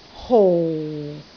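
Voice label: "hou4", Cantonese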